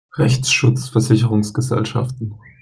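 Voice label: "rechtsschutzversicherungsgesellschaften", German pronunciation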